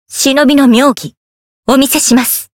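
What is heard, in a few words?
encounter, Japanese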